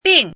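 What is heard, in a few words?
"bin" fourth tone, pinyin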